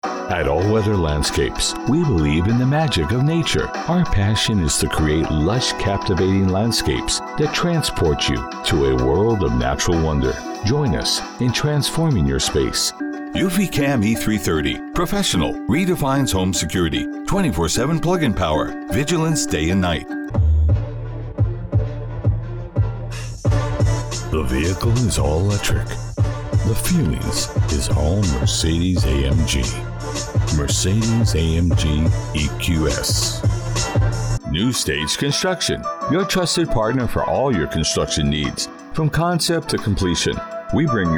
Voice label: Commercial Sample